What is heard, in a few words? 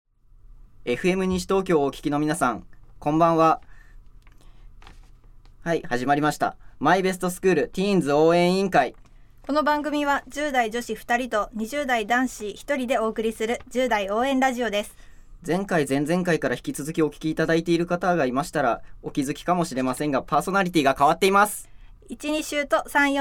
radio bad